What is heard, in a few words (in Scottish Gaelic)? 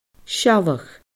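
"sealladh", Scottish Gaelic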